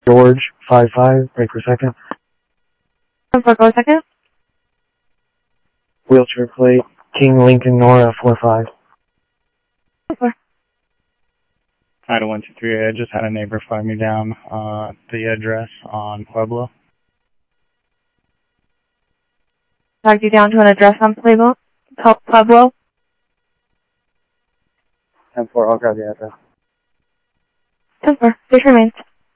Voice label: South Scottsdale Sheriff, scanner audio